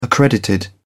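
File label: "accredited", British English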